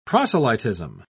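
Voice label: "proselytism", British English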